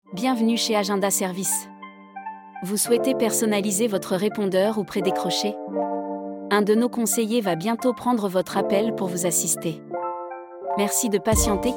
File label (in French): audio modele femme